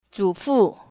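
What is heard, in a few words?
zufu, grandfather, mandarin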